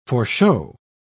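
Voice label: "foreshow", British English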